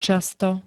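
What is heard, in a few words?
"často", Slovak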